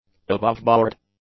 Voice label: "aboveboard", english; adjectives

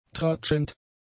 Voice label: "tratschend", German; adjectives

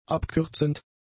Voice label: "abkürzend", German; adjectives

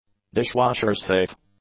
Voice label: "dishwasher-safe", English; adjectives

